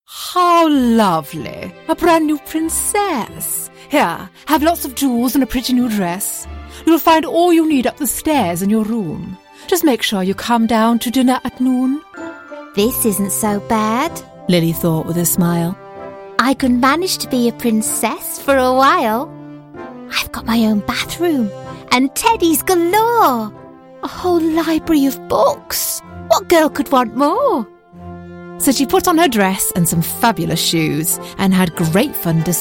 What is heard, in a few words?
Narration